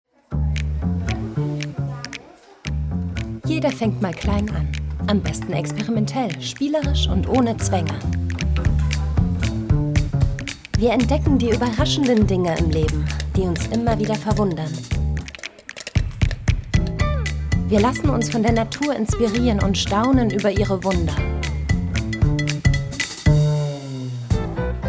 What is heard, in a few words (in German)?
IMAGE FILM Stimmprobe